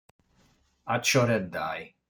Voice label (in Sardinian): "Acioreddai", sardu